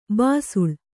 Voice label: "ಬಿಸಿಲ್", Kannada